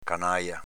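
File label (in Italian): "canàja" Veneto dialect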